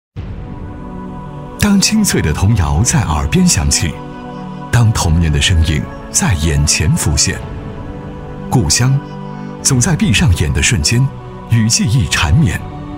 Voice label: 男 专题 故乡（抒情舒缓）

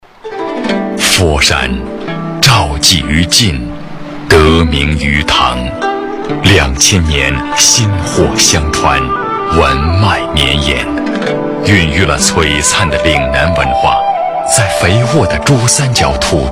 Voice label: 男 专题 城市宣传片

